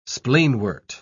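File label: "spleenwort", British English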